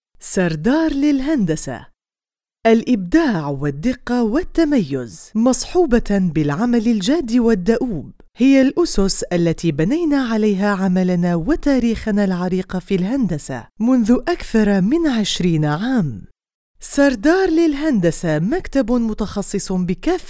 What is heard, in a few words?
Narration